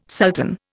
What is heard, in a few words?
"sultan", Indian